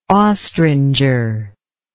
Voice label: "ostringer", English